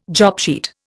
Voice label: "jobsheet", Indian